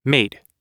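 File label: "mate", English; oxford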